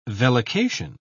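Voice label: "vellication", British English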